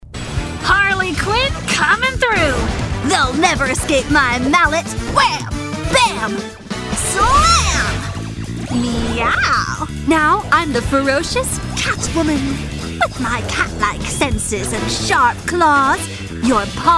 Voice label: Animation Gaming Character

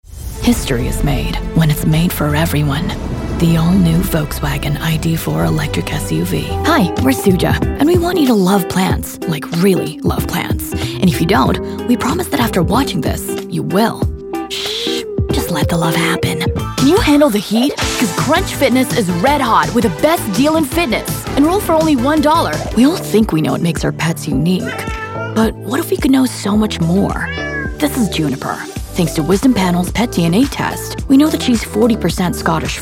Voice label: Commercial English

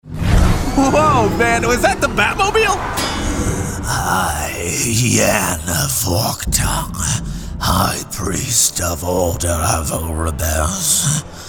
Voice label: Animation

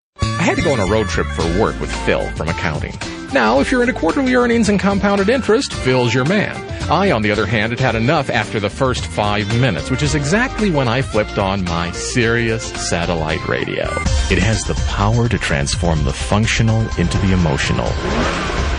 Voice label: Commercial English

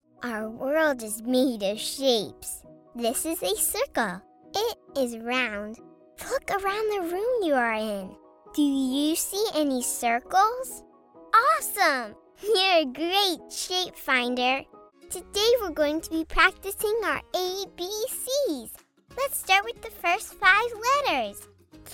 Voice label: VO E-Learning

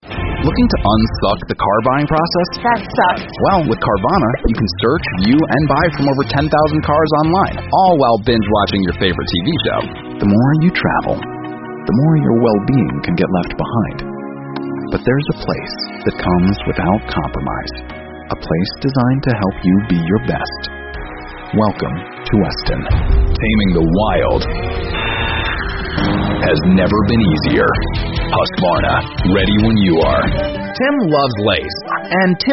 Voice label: Commercial

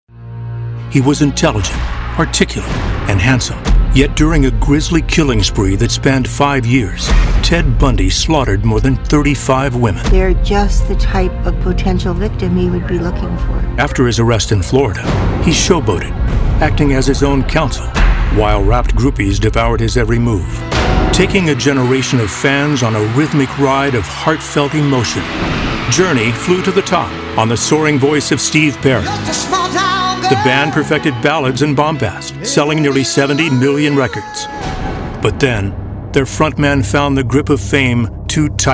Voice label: Narration

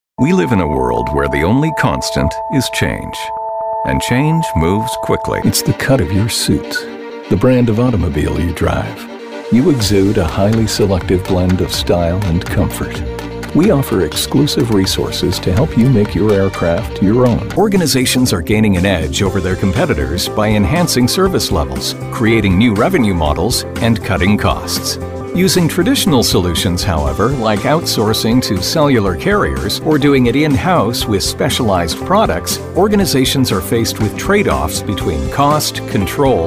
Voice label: Mid-Atlantic English Corporate

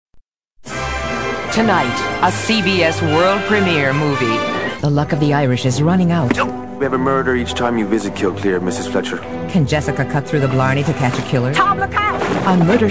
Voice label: Promos

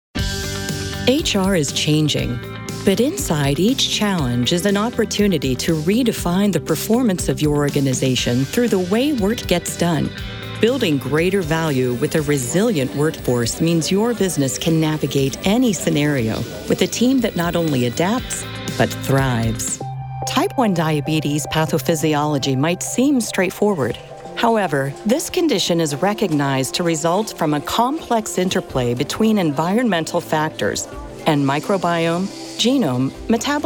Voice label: Narration Demo

